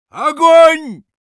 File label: auto on fire ru; Sniper; «Огонь!»; Реплики снайпера